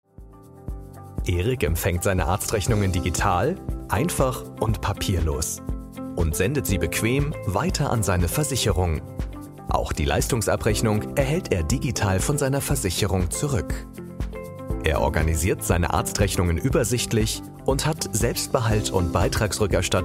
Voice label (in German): erklarfirm animiert